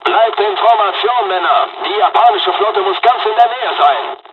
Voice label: dialog, dialogs german; War Thunder; midway

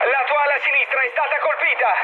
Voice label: left wing mood med, speech it; War Thunder

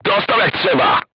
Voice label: voice message direct hit, crew tanks polish

